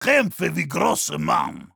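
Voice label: melee dare, German; Heavy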